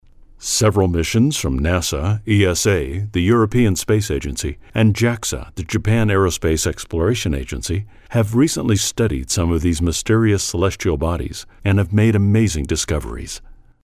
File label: locutor estados unidos american voiceover; Spanish cartoon dubbing voice over agency